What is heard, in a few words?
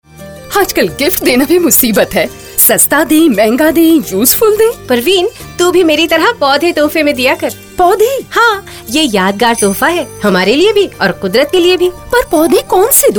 Gift sapling urdu; greengood